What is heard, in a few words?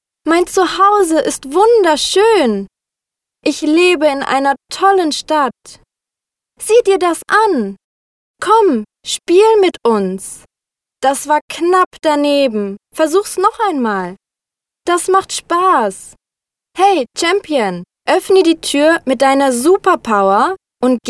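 German female children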